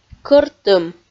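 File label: "кӧртым" Komi, female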